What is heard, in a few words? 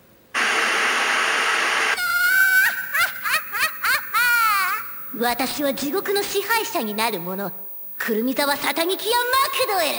monolog; satania